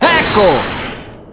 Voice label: "Ecco", Italian; Apollo